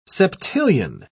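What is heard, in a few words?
"septillion", British English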